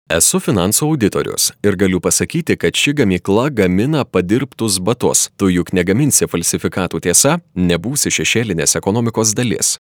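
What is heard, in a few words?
Lithuanian, male, narration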